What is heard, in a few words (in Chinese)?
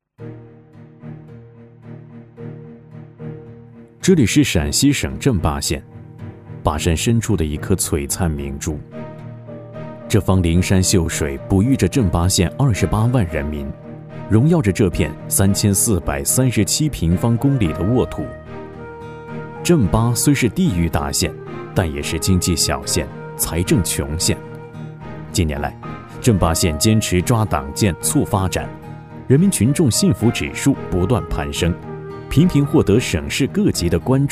人物类 专题片